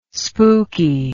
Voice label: "spooky", English

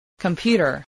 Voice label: "computer", spelling

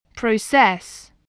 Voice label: "process", voice, spelling

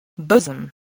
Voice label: "bosom", spelling

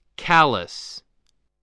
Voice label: "callous", spelling

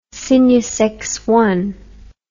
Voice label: "cygnus loop", english

